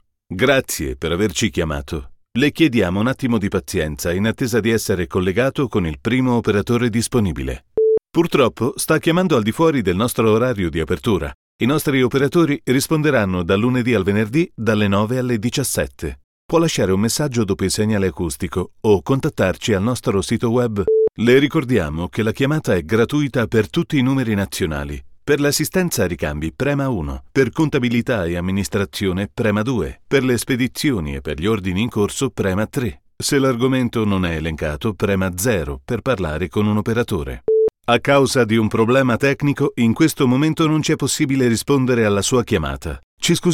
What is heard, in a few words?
italian ivr